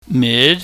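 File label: mid rising, tone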